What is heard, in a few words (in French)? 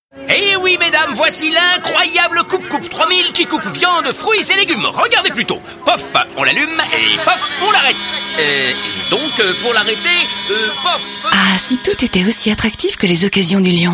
radio doux enjoue voix soufflee; peugeot; laffaire